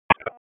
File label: Police Southeast District Tac; Raleigh; Tac 2; wake; p25